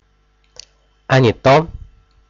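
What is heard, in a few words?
"а не то", Russian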